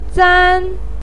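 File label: "zan1" Chinese syllable, pinyin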